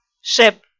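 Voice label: "шеп", Komi, female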